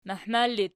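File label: "mahmar" ergative, Archi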